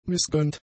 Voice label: "missgönnt", German; adjectives

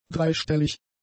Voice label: "dreistellig", German; adjectives

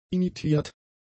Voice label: "imitiert", German; adjectives

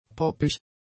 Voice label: "poppig", German; adjectives

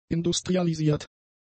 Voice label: "industrialisiert", German; adjectives

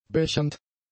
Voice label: "bechernd", German; adjectives

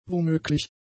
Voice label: "womöglich", German; adjectives

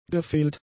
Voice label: "gefehlt", German; adjectives

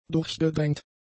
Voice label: "durchgedrängt", German; adjectives

